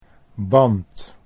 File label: "band", Dutch